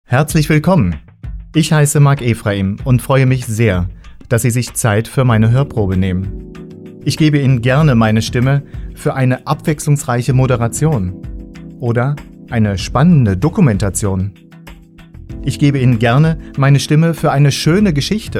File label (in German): stimmprobe, Moderator; Karlsruhe; Messen; Events; Modenschauen